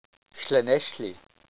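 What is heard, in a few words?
"llanelli", Welsh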